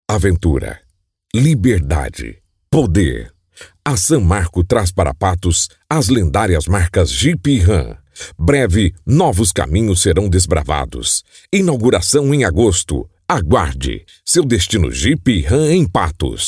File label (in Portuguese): Masculino